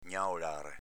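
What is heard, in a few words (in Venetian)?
"gnaolare", Veneto dialect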